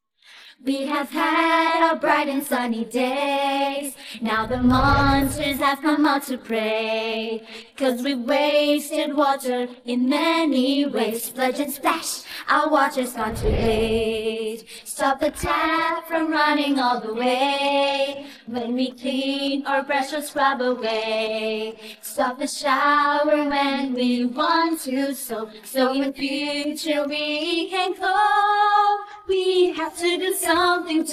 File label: vocals; lets go save water - pub water conservation animation